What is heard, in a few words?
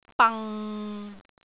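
"bang6", Cantonese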